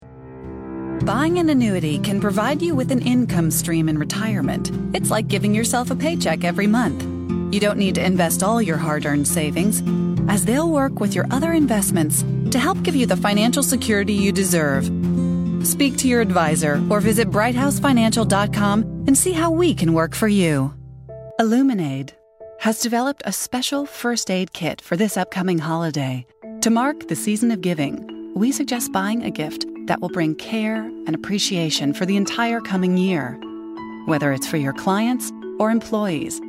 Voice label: corporate